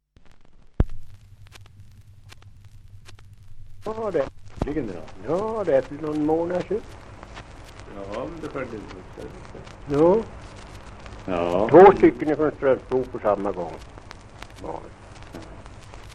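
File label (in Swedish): Hille Gästrikland, dialekter; Folkminnen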